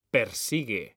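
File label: "persigue", Spanish, male; mekanta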